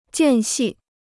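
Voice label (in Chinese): "间隙" Chinese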